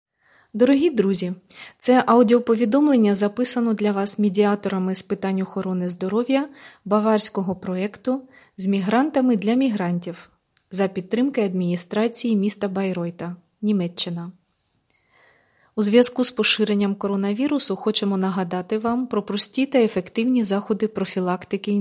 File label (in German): Coronavirus Hygienetipps Ukrainisch